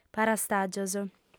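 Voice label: "parastàgios" Sardinian, female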